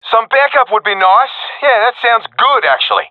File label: request backup, professional epic; vo; Source Model Packs; Counter Strike Model Pack; CS2